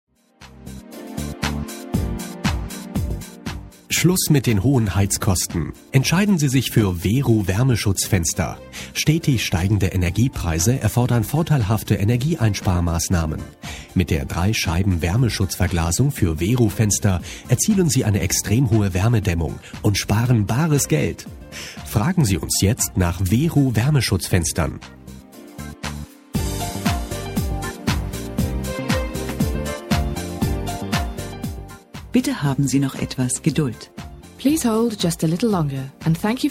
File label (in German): Werbespot; Weru